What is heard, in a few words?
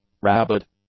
"rabid", English; adjectives